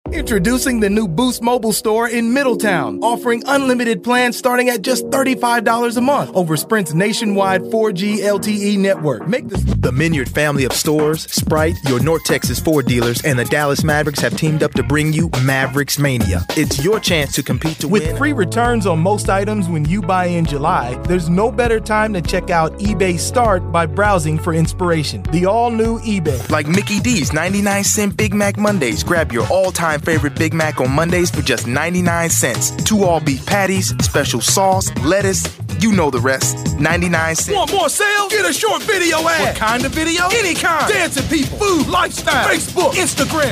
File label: american voiceover montage